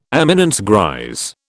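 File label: "Éminence grise", British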